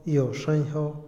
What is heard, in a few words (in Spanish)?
humor, Otomí, hombre; INALI